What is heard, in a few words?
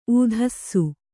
"ಊದಿಸು", Kannada